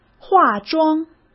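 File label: "化妆", Mandarin Chinese; hsk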